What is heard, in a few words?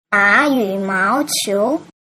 "dayumaoqiu", mandarin